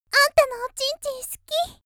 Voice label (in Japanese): 元気な少女; あんたのおちんちん好き